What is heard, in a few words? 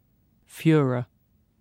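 "fuhrer", British English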